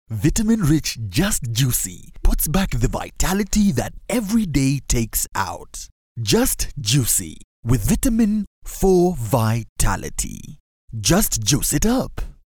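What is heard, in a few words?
African English, male; Just Juicy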